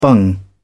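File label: "bung", British English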